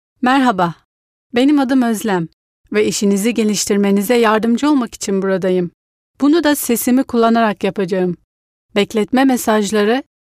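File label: Turkish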